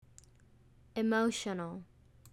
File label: emotional